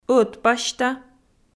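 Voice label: "ӧтпасьта" Komi, female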